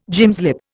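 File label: "gymslip", Indian English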